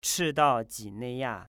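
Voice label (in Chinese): "Zhidao Jineiya", Chinese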